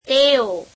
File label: gujarati they; તેઓ [teeo]